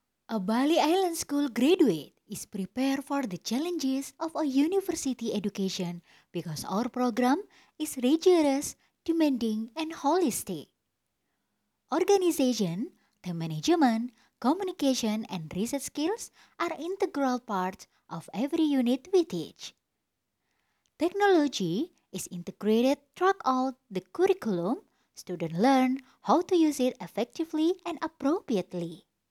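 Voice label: formal English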